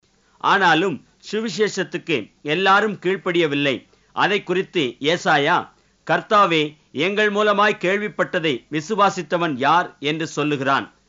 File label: Tamil